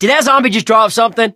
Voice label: fallen survivor, survivor voice